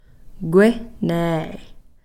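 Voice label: "relative", Takelma word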